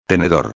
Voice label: "tenedor", espanhol

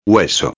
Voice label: "hueso", espanhol; palavra do dia

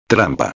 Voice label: "trampa", espanhol

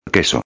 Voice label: "queso", espanhol

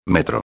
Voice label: "metro", espanhol

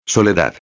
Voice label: "soledad", espanhol

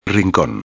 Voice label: "rincon", espanhol

